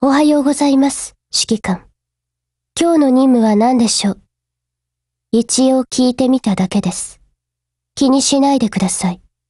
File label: HELLO JP; GF Alarm; M1918Mod